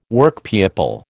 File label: "Workpeople", American